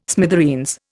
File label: "smithereens", Indian